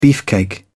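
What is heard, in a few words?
"beefcake", English, British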